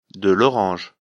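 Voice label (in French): "orange", French; afrikalan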